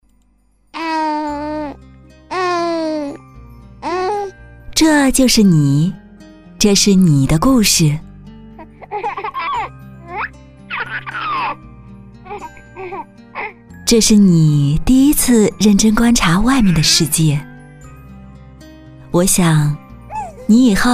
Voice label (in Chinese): 广告 米粉; 嘉宝